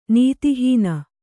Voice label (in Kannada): "ನೀತಿಹೀನ", Kannada